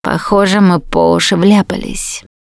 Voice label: going to die, russian; Producer